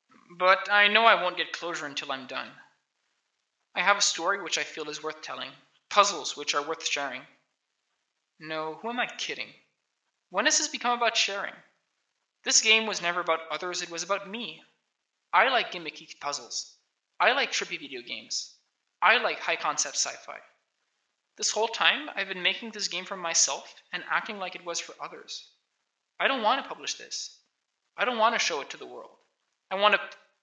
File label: voice, narration